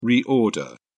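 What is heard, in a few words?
"reorder", English, British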